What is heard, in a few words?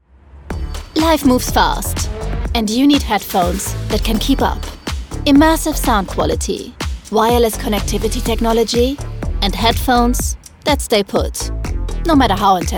EN voiceover; DYN